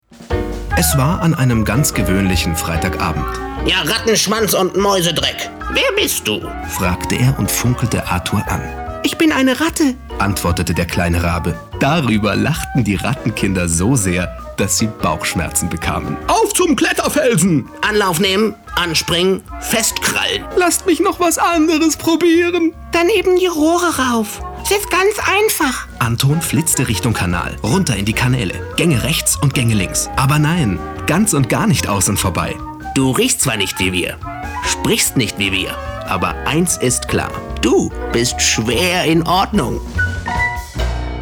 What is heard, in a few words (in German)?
HÖRBUCH Medley KINDERBUCH